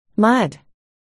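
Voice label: "mud", spoken word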